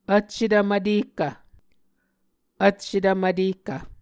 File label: "vccetv mvtehkv", Muscogee; Mvskoke Words with Repeated Consonants; Martin, Jack B., and Margaret McKane Mauldin. 2023. A Dictionary of Creek/Muskogee